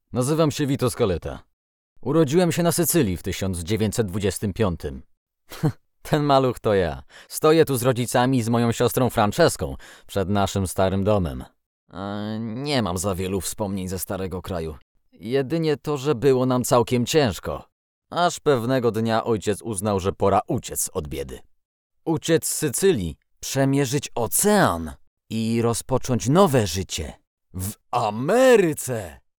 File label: narrator